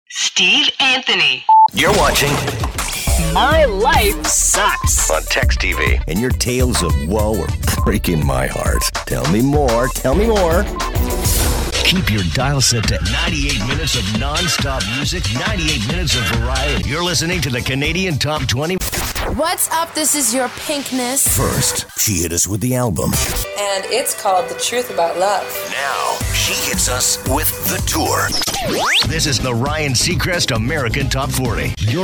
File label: CHR Imaging